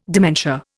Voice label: "dementia", Indian